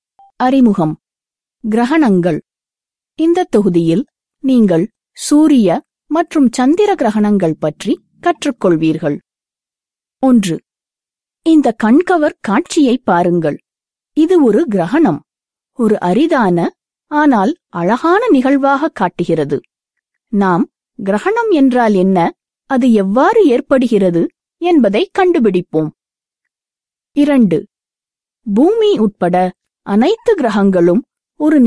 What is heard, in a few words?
Tamil F eLearning; voice-over